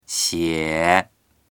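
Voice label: "xie3", Mandarin Chinese; 写; 書く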